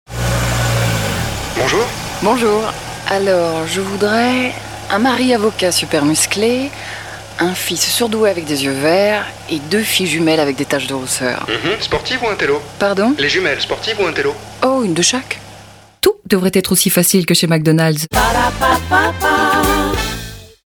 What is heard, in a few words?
French commercial; Mac Drive